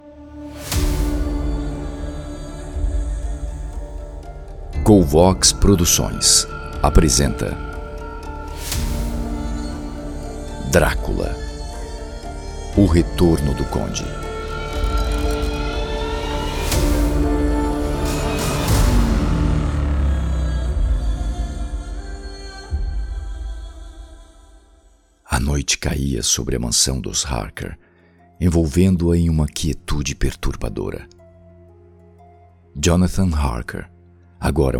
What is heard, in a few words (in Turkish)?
seslendirme